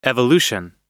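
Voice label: "evolution", English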